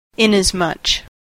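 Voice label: "inasmuch", US English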